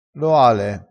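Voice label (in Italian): "loale", dialettale crespinese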